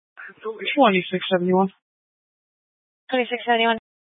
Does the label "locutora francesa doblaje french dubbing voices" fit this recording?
No